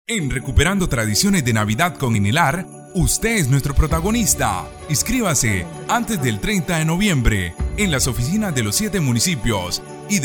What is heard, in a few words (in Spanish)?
CUÑA CONCURSO